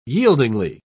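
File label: "yieldingly", British English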